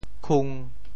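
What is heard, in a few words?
"khung1", Teochew; 潮州; 焜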